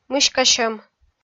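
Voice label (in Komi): "мыськасьӧм", Komi, female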